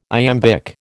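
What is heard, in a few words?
"iambic", American English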